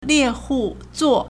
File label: "lie4hu4zuo4", Chinese